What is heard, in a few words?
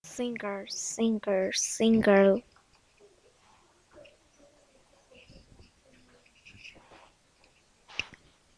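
"singer", spoken word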